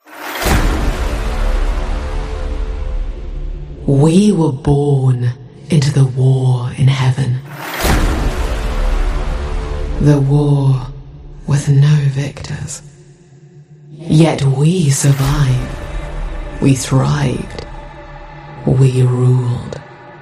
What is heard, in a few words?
english british movie trailers